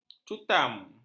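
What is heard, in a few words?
"cutam", Malay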